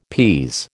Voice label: "pease", American